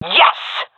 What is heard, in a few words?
cheer, vo fem; agents; Source Model Packs; Counter Strike Model Pack; CS2